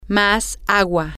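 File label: more water, Spanish, female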